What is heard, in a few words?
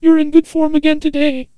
Antenna Voice